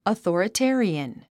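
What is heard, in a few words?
"authoritarian", English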